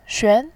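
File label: "xuan", Mandarin Chinese, tone 2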